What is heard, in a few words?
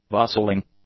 "bustling", English; adjectives